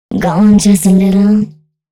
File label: female spoken hooks, dance ultra voice